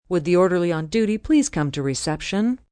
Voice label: Paging Orderly, PA, female, hospital; Pa Announcement; VoiceBox